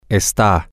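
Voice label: are Temporary, Spanish, male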